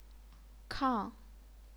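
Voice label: "kang", Chinese, fourth tone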